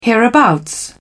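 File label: "hereabouts", British English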